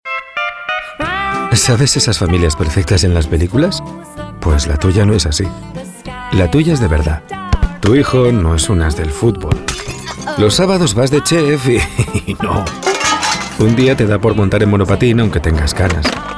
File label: male spanish insurance comercial; ideasonora